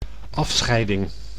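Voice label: "afscheiding", Dutch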